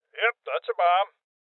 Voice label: Voices Bomb Spotted Male Old; Rebel Cops